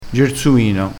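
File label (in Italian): "gersumino", vocabolario dialettale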